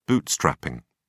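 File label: "bootstrapping", British English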